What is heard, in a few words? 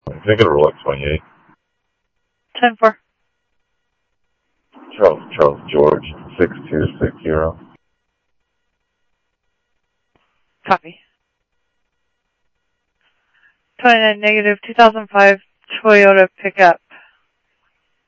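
Mesa police department, scanner audio trunk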